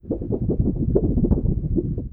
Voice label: Zombie